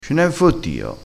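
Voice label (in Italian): "fottio", vocabolario dialettale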